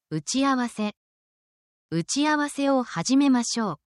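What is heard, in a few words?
Japanese word, essential Japanese phrases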